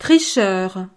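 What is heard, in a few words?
"tricheur", French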